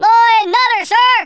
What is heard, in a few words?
oi nutter, Drill Sergeant; Worms Armageddon